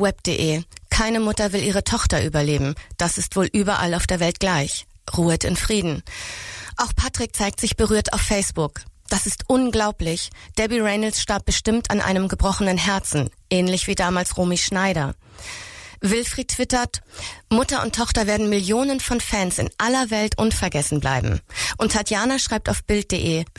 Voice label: Radio Social Media